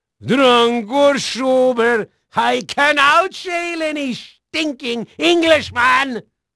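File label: Dutch male, voice; Pirates Of The Caribbean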